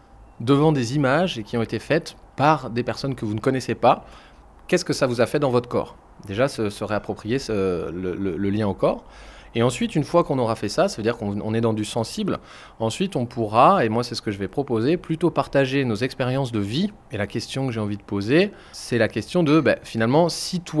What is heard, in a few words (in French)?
RADIO SUJET DEBAT REVENU DE BASE; RADIO PEYI